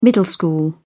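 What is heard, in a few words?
"middle school", British English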